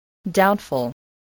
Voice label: "doubtful", spelling